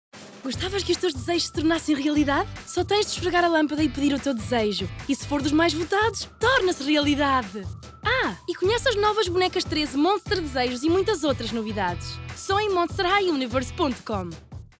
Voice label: female portuguese comercial; ideasonora

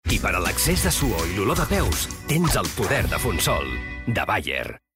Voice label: male catalan medicine comercial; ideasonora

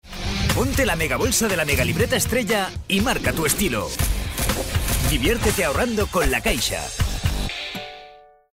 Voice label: male spanish bank comercial

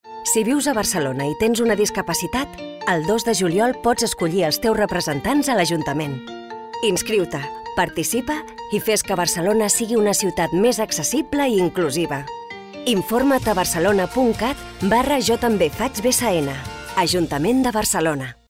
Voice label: female catalan town hall comercial; ideasonora

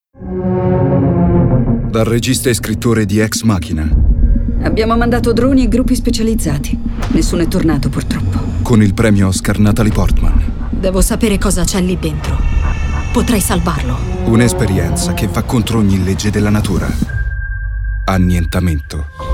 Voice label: male italian comercial; ideasonora

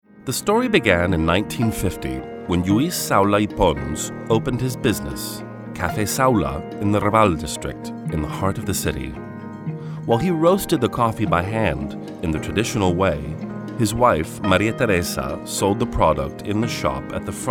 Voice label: male american english cafe comercial

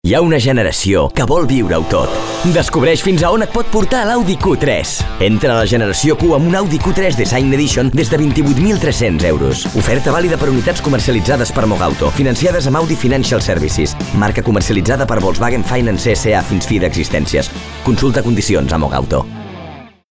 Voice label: male catalan car comercial

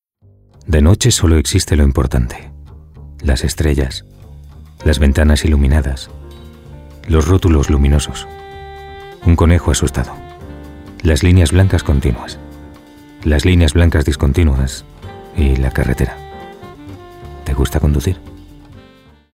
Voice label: male spanish car comercial; ideasonora

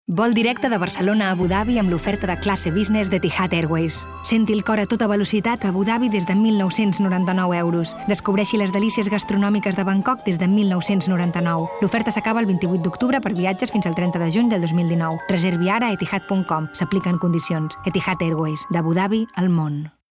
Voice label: female catalan airline comercial

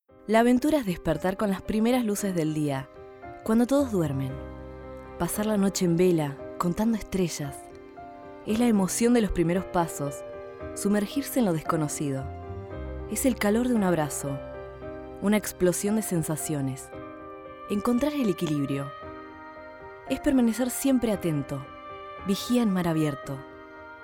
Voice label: female Argentinian tv comercial; ideasonora

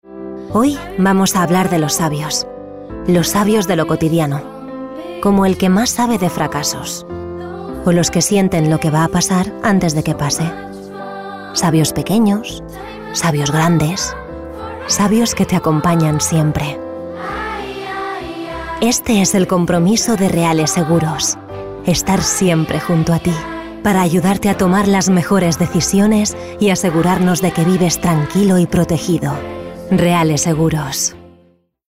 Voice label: female spanish insurance comercial

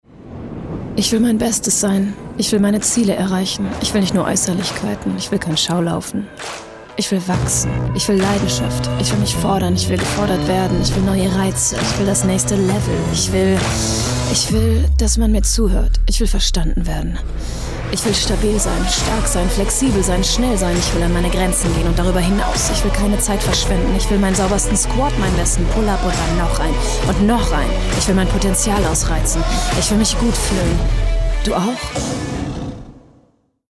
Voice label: female german insurance comercial; ideasonora

